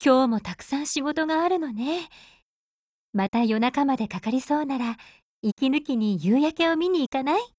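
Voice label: Japanese; QBU191; DIALOGUE WEDDING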